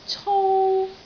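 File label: "cou1", Cantonese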